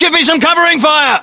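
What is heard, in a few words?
order action cover me, battlechatter British; english; uk; localized english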